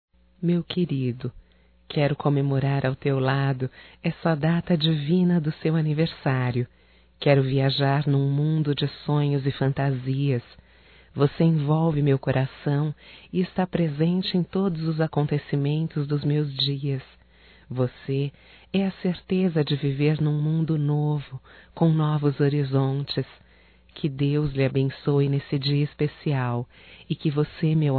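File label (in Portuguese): romântico female, Telemensagens Aniversarios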